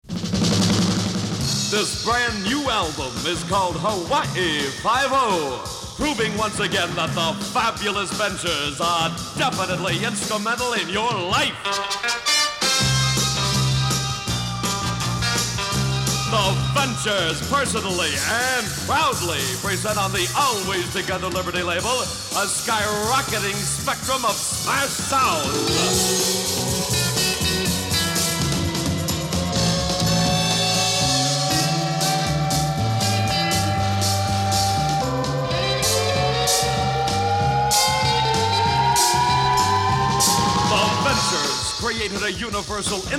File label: Radio Spot